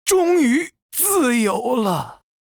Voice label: villager, zh